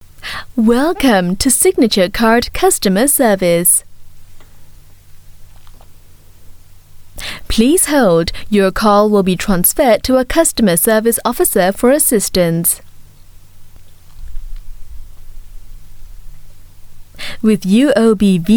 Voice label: Asian English IVR; UOB

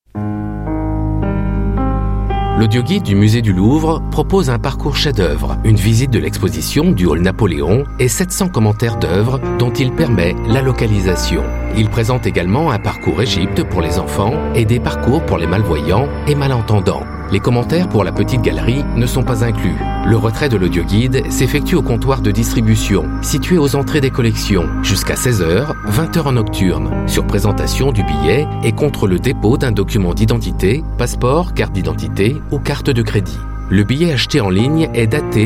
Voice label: French Ad; Louvre

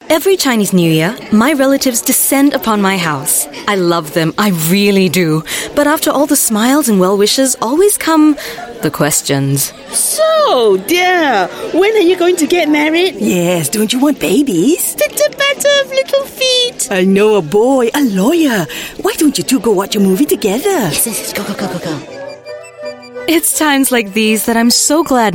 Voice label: Asian English Cards TVC; UOB; CNY

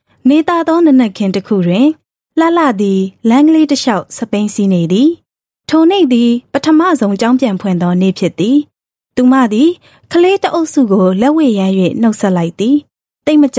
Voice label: F Burmese Narration

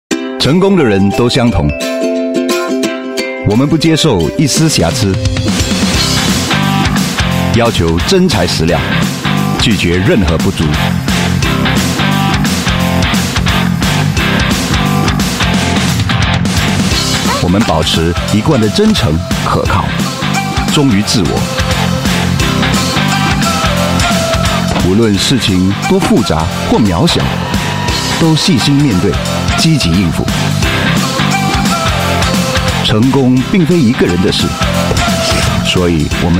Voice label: Mandarin TVC, Male; China; POKKA Coffee

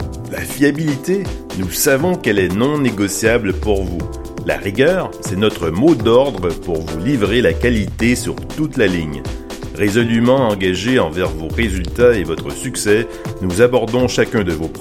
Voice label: French; CONFAB

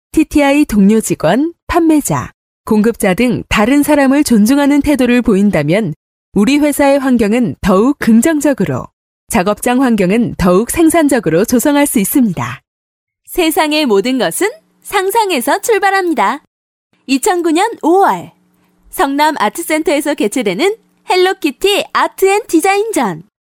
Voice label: Korean Voice Sample

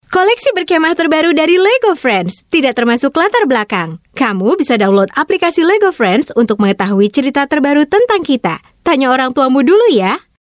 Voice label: Bahasa Indonesia Female TVC; Lego Friends; Camp

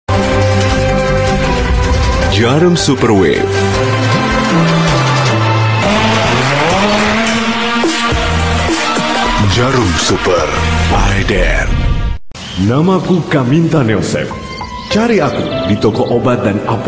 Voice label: Bahasa Indonesia

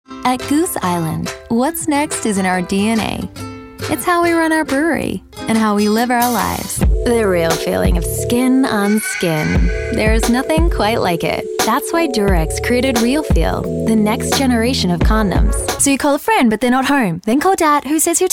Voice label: AU English Commercial Reel

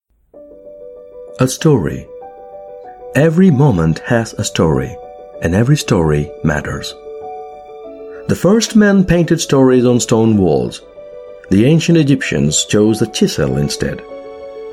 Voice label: English Indian Corporate Film Audiobook

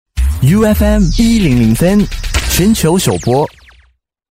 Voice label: Mandarin China Station Voice

